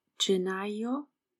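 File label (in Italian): "gennaio", Italian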